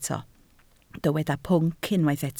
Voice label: "pwnc", Welsh